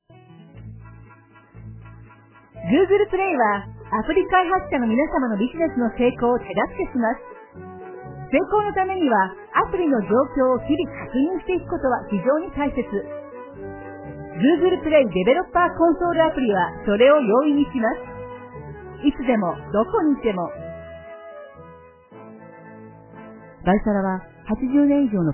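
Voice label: Japanese, female, Commercial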